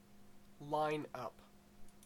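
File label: "lineup", Canadian English; pronunciations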